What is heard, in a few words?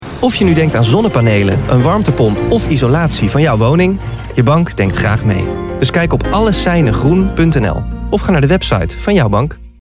voice-overs; NLse Vereniging van Banken